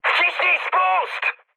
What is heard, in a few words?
attack position mood high, speech pol